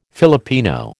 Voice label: "Filipino", American

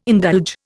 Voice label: "indulge", Indian

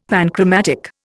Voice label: "panchromatic", Indian; Dictionary

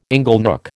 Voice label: "inglenook", American

